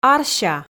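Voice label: "арся", Komi, female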